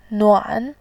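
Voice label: "nuan", Mandarin, tone 2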